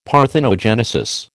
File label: "parthenogenesis", American